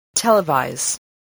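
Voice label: "televise", English word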